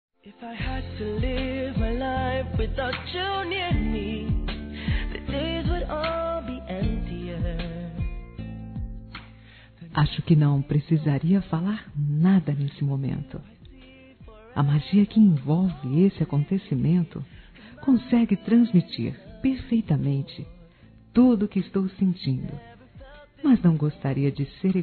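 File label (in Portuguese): Maternidade, feminino; Telemensagens; Românticas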